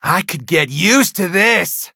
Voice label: levelup, en; cookie run